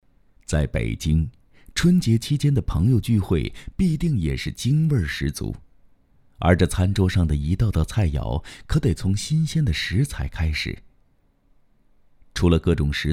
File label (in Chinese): 纪录片舌尖风格; 北京年味